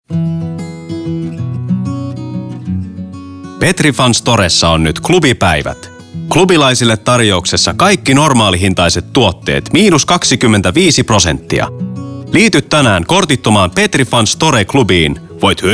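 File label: Finnish, general; GoLocalise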